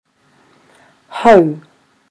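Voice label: "hau", Māori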